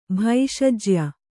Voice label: "ಭೈಷಜ್ಯ", Kannada

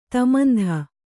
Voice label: "ತಮಂಧ", Kannada; tamandha; noun; absolute darkness.

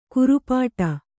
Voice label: "ಖುರಪುಟ", Kannada

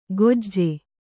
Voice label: "ಖೊಜ್ಜೆ", Kannada

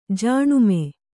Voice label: "ಜನ್ಮ", kannada

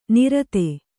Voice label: "ನೈಋತ", Kannada

